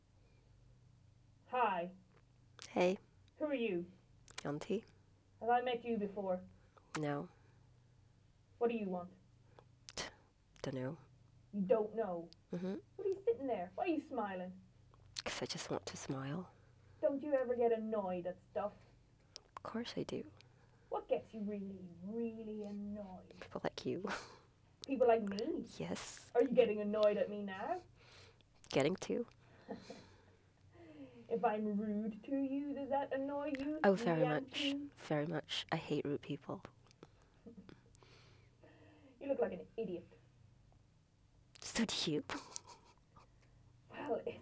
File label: User Head Mounted; semaine; Spike